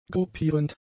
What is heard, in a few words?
"gruppierend", German; adjectives